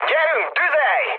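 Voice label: attack position, mood med, Hungarian; War Thunder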